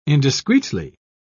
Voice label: "indiscreetly", pronounce